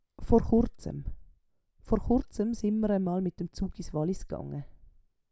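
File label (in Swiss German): "vor Churzem", Swiss German; Wortschatz Kapitel 8; Kapitel 8 Zusatzwortschatz 3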